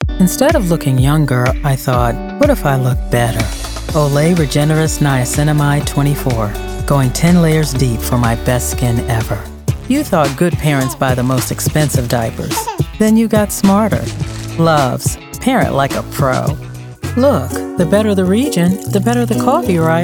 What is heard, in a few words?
Commercial; Promo Voiceovers